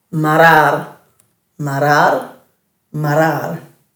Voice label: "marrarr", Dhurga